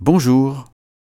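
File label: "bonjour", French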